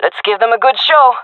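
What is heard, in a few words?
round start, female voice; player vo; Counter Strike Model Pack; CS:GO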